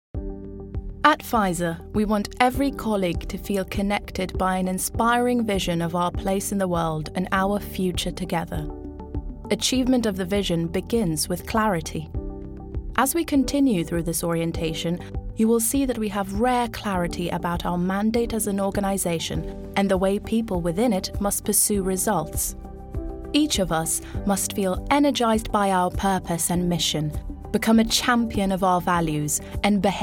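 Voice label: British English, Medical Explainer; GoLocalise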